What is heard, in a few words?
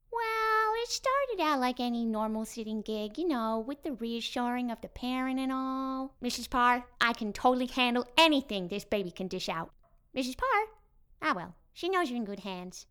american